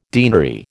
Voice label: "deanery", American

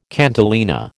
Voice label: "cantilena", American

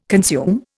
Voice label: "consume", Indian

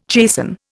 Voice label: "chasten", Indian

